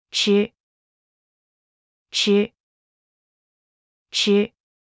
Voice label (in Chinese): "齒", mandarin; Traditional Chinese